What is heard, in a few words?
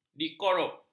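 "dikorup", Malay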